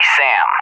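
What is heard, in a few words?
"SAM", English, USA; Target; DCS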